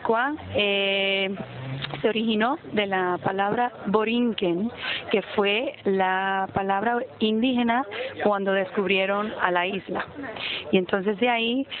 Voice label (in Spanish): Puerto Rico Rincon Female